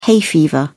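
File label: "hay fever", British English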